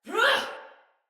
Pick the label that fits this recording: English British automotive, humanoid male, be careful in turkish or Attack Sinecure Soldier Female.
Attack Sinecure Soldier Female